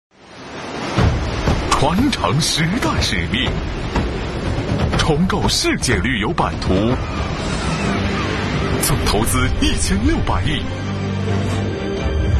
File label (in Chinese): 广告 地产类; 广告 > 建筑房产; 中国海南海花岛央视广告